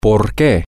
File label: why, spanish, F